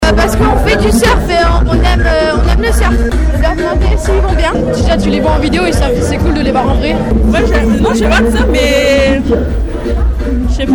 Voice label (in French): VOX POP, les fans a la rencontre des surfeurs pro; BILLABONG